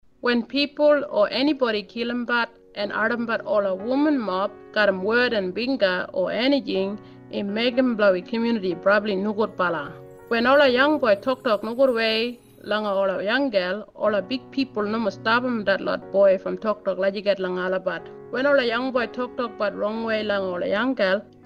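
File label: Northern Kriol radio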